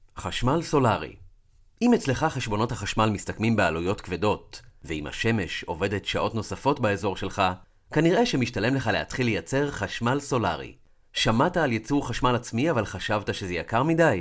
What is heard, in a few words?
Male, Hebrew